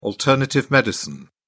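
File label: "alternative medicine", British English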